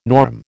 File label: "norm", American